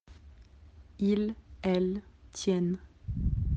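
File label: "tenir", French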